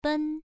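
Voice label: "ben" Mandarin, first tone, pronunciation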